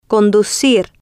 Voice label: "conducir", Spanish